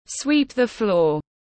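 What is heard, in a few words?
"Sweep the floor", English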